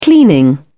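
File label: "cleaning", English, uk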